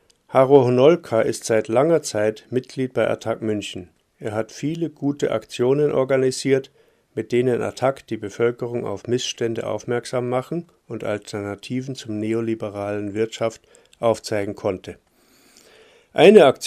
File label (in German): Ansage; Attac; LoRa